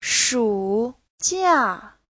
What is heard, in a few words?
"shu3jia4", Chinese; Giáo trình Hán ngữ